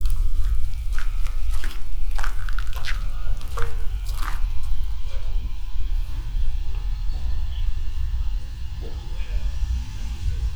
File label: voicetrack; nantes